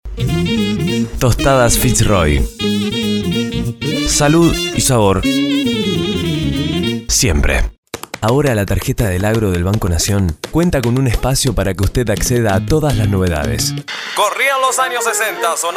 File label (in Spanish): comercial